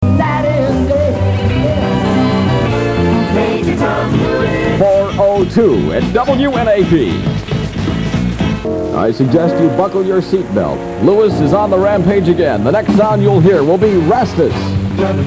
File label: Aircheck; WNAP